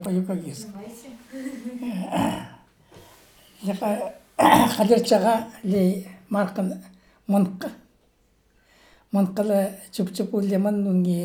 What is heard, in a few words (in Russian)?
Речь на северноюкаг; Речь на северноюкагирском языке